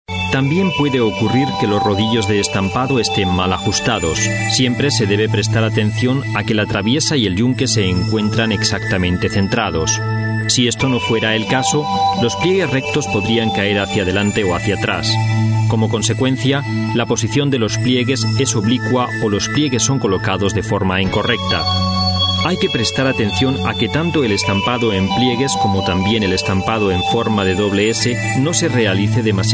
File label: es